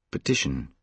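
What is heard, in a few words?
"petition", British English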